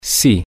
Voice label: yes, spanish, F